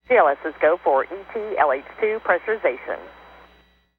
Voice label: Sound Bite Space Shuttle Launch Comm LH2 Pressurization; Nasa